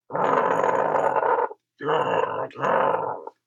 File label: Angry